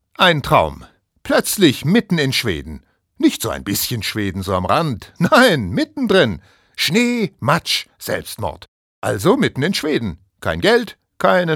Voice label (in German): Schauspiel Dynamisch